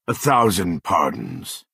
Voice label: Redguard male sorry